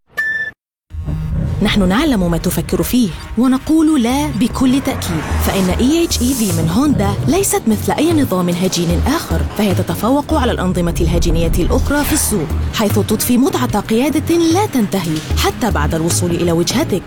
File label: Arabic Ad; Commercial-Honda